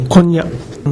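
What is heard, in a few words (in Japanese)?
"konnya", 南山形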